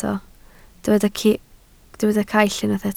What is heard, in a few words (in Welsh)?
"caill", Welsh